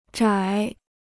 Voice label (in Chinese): "窄", Chinese